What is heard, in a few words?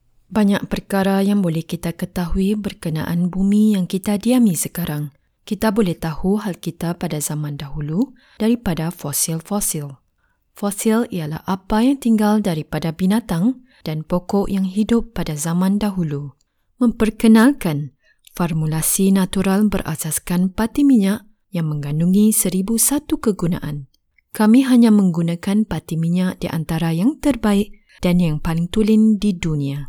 Malay